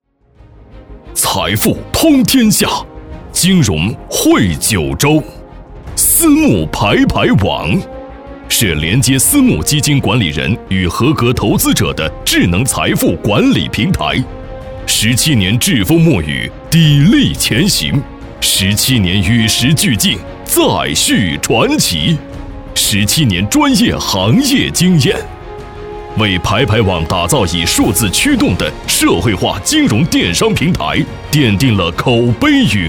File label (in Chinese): 男 激情宣传片